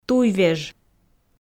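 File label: "туйвеж", Komi, female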